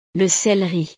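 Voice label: "le celeri", French; verduras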